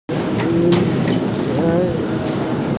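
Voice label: "nv hai", Mandarin Chinese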